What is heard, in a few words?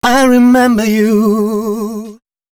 male, Dance Ultra Voice hooklines; Mutekki Media; Dance Ultra Voice VOL-1